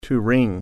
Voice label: "ring", English

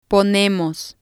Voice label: "ponemos", spanish

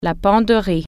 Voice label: "closet", French

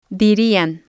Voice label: "dirian", spanish

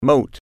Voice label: "moat", English

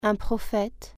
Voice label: "prophet", French

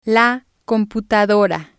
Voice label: "la computadora", spanish

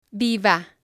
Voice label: "viva", Spanish

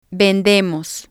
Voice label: "vendemos", Spanish

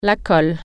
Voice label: glue, french

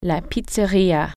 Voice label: "pizzeria", French

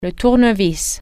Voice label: screwdriver, french

